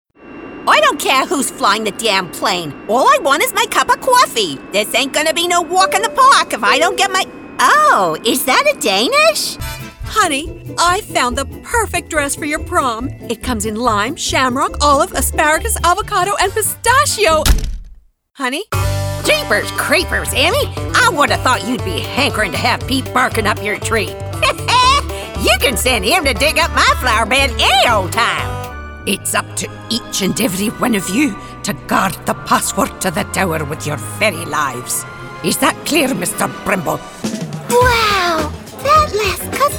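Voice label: Character Female; TaDa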